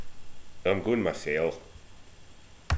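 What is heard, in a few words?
"masel", slang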